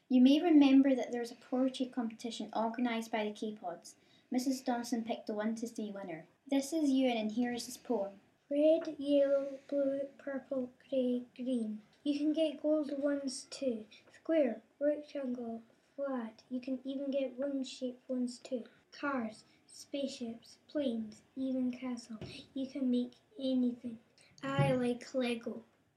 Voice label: Poem